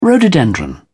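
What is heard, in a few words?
"rhododendron", British English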